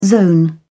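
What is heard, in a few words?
"zone", British English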